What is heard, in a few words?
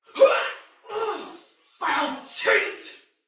Damage Low Chance Soldier Female; Battle; Barks; Dialog; Sinecure Soldier VIP Female A